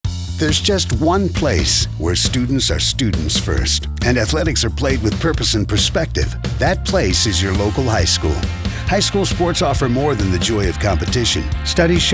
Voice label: audio spot